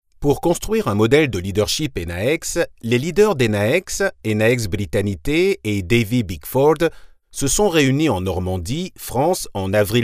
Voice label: locutor frances french voiceover casting elearning